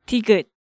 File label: "Digeut", Hangeul sounds